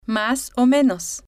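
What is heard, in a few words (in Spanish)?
"mas o menos", spanish, female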